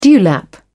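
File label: "dewlap", British English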